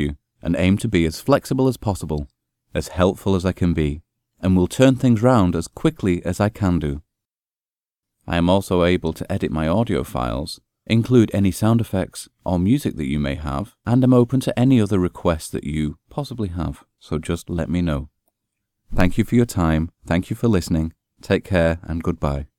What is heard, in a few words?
Studio Voice